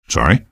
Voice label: "sorry", male; npc; Garry's Mod; hl2

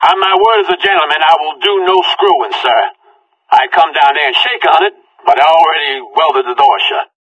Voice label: conversation; Left 4 Dead 2

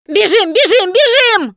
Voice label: yell run, Survivor Voice; russian; Producer

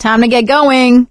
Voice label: move on, survivor voice; Left 4 Dead 2; producer

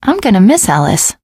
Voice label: teengirl, voice; Left 4 Dead 2; l4d1 finale

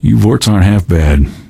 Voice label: question, male; Garry's Mod; hl2

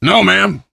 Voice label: initial meeting, survivor voice biker

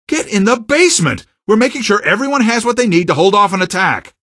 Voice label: citizen greet, male; Garry's Mod; hl2; odessa

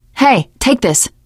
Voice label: alert give item, teen girl; Left 4 Dead 2

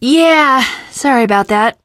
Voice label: finale chat, teengirl, voice; Left 4 Dead 2

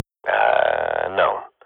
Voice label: radio bot reponse negative, Counter-Strike swat; Global Offensive; player vo

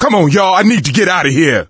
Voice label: call for rescue, voice; Left 4 Dead 2; coach

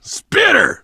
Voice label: spitter, survivor voice biker; l4d1; Left 4 Dead 2

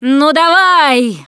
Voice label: defibrillator, russian; Producer

